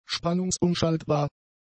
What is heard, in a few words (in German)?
"spannungsumschaltbar", german; adjectives